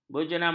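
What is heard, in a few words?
"berjerambai", Malay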